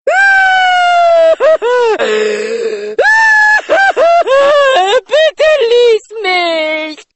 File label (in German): verzweifelte sms